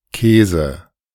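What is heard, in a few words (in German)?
"Kaese", österreichisches deutsch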